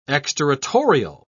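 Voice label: "exterritorial", British English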